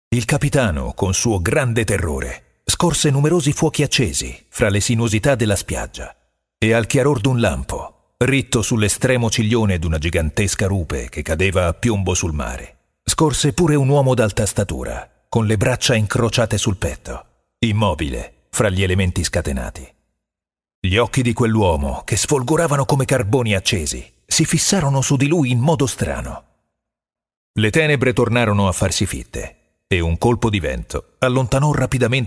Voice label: it audiobook

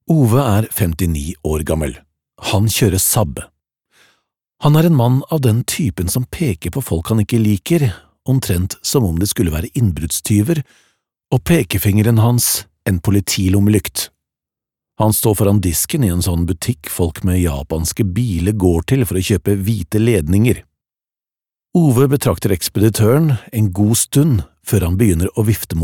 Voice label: no audiobook

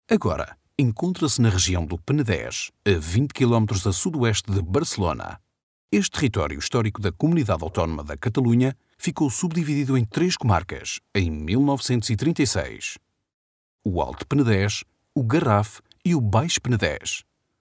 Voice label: pt informative

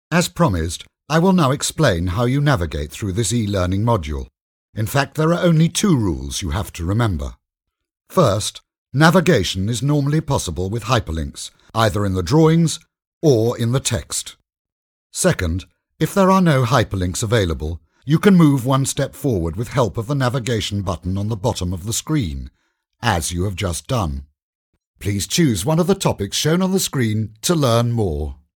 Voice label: uk elearning

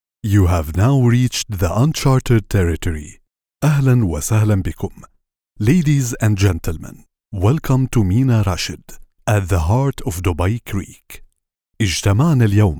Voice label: arabic corporate

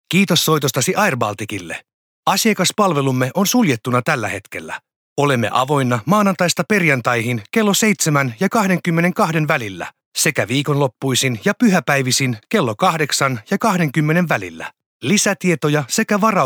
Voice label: fi phone prompt

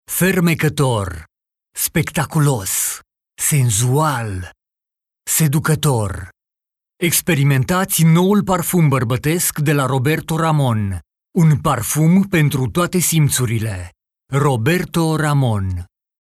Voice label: ro commercial

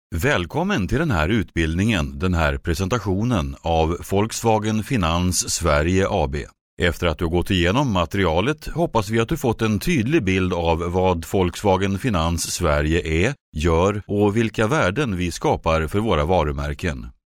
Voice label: se elearning

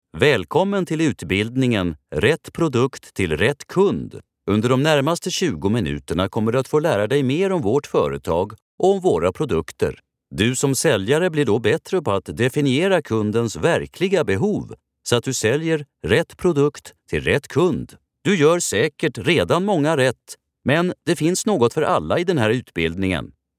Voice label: se elearning

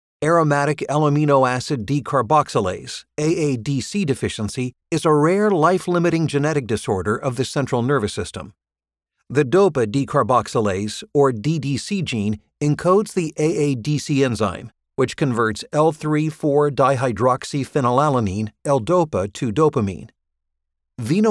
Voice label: US English pharma, english-us male

